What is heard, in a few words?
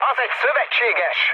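player friendly fire, mood med, Hungarian; War Thunder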